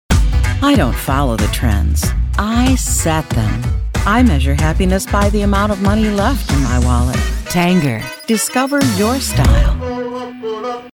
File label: commercial tanger outlet